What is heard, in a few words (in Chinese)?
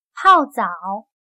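"泡澡", Chinese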